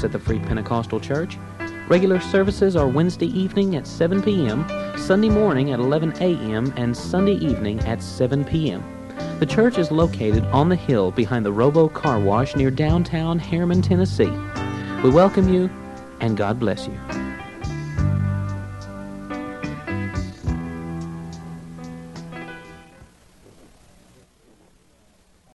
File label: Jerusalem Commercial